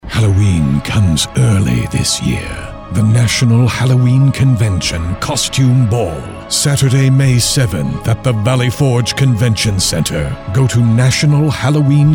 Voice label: radio commercial